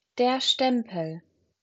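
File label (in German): "Stempel", German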